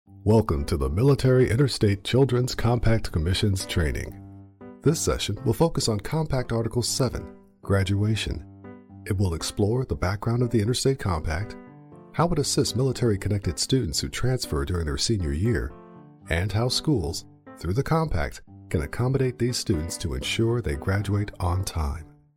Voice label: VO E Learning Excerpt